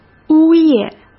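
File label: "呜咽", Mandarin Chinese; chinese flashcards; hsk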